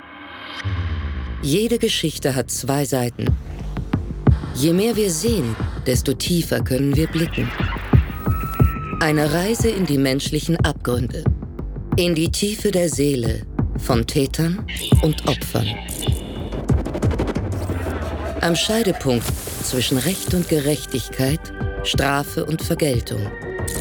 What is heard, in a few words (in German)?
Trailer, frauen; sprecher